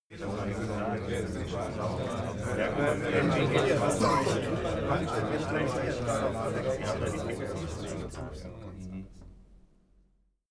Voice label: talk german neutral short

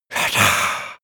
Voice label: male whisper spell

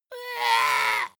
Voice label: dwarf disgusted

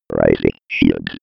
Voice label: old computer voice

